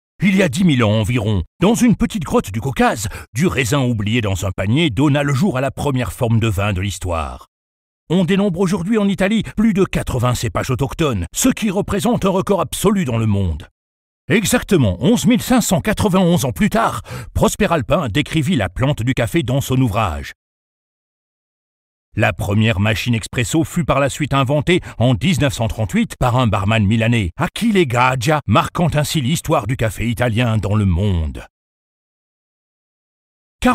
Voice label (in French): voix grave narrateur senior pub sans musique; panino giusto